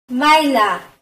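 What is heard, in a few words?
level, Basque; gcompris-data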